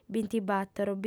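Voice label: "bintibator", Sardinian, female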